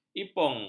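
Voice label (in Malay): "ipung", Malay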